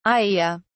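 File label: "iaea", British English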